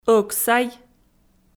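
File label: "ӧксай", Komi, female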